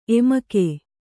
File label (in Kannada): "ಎಮಿಕೆ", Kannada